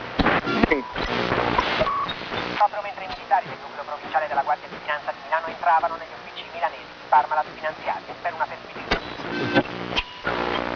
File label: italian radio, chatter